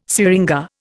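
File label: "syringa", Indian English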